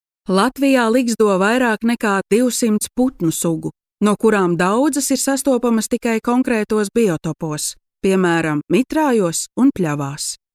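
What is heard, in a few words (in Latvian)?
Infografika Latvian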